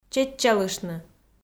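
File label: "чеччалыштны", Komi, female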